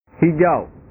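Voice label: "hijau", Indonesian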